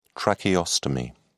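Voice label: "tracheostomy", British English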